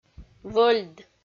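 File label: "вӧльд", Komi, female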